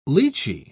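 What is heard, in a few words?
"lichee", British English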